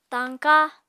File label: "tañka", apatani